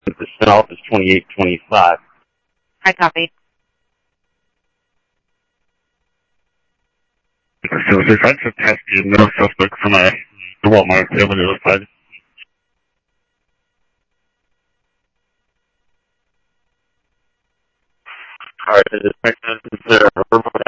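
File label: Simulcast police department, scanner audio trunk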